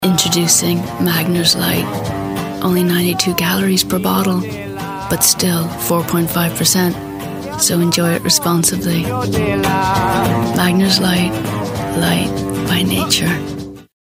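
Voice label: Irish English Sinnlich; Fremdtake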